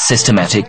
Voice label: LoFi Words, LoFi Vocals